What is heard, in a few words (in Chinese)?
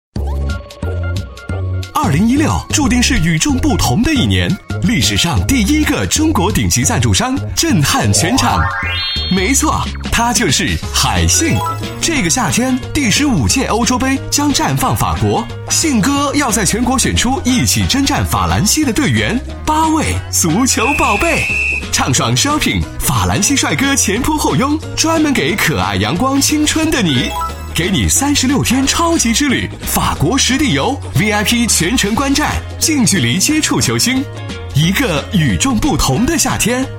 男 广告 法国 从容 时尚 活力, 国语男声配音 磁性; 海信